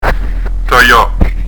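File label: "taglia", Prononciation du corse; taj'a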